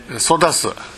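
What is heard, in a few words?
"sodasu", Minamiyamagata dialect Japanese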